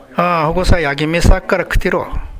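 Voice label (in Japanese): "yagimisu", 南山形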